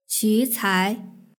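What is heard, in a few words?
"qicai" Chinese word; beishuiyizhan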